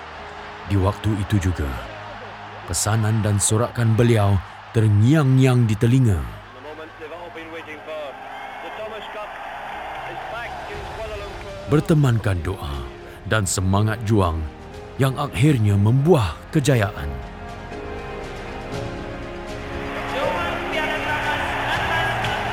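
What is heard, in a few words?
male VO Bahasa Malaysia Announcer Inspiring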